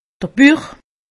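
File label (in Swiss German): "buch", Haut Rhin; medecine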